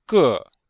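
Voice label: "gè", Chinese; Cours de Chinois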